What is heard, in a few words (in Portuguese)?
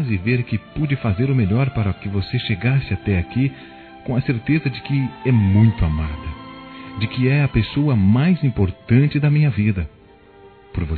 Aniversário 15 anos MASCULINO, Telemensagens; Telemensagens 2017; Aniversários